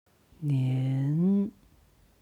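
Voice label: "nian", Chinese; 年; HSK Level One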